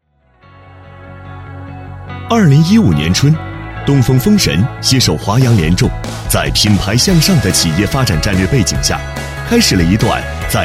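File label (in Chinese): 男【专题】科技感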